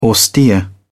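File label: "austere", British English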